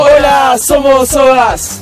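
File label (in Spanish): "Hola somos dosogas", Spanish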